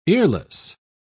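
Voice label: "earless", British English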